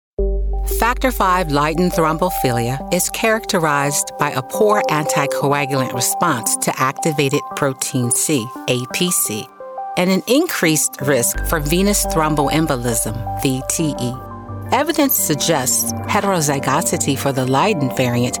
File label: Medical Narration